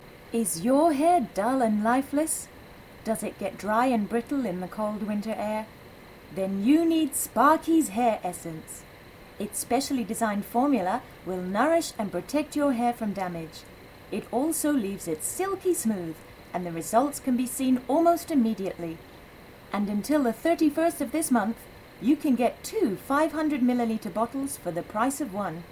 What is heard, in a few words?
Advert for Shampoo